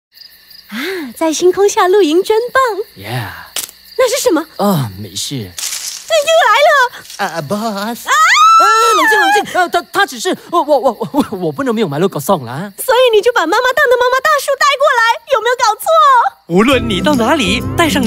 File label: Mandarin Narration, Style: Mature, Conversational and Warm; Mandarin Narration Style 1